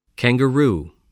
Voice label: "kangaroo", English

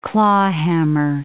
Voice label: "clawhammer", English

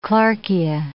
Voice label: "clarkia", English

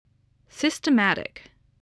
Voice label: "systematic", English

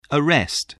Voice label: "arrest", English

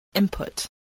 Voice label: "input", voice spelling

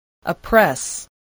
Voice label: "oppress", English voice

